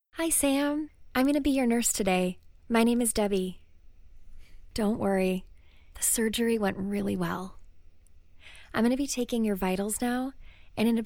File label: Natural Reads Voiceover; Natural Reads Demo